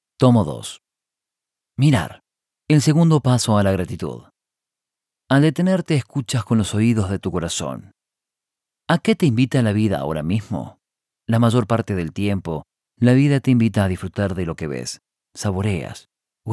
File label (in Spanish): audiolibro